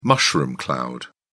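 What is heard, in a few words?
"mushroom cloud", British English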